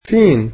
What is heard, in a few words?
"where", egyptian